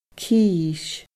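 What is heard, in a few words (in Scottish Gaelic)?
"cis", Scottish Gaelic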